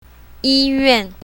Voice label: "yi1 yuan4", mandarin

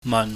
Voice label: "man", pingyam

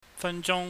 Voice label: "fanjung", Cantonese

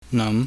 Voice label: "nam", pingyam

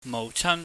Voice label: "mouhchan", Cantonese

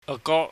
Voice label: "ago", Cantonese

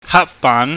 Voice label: "haakbaan", Cantonese

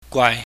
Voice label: "gwai", chinese

